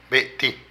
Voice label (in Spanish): Asesinar, Zapoteco de Petapa; Vocabulary